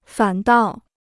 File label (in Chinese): "反倒", Chinese